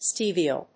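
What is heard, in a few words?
"steviol", English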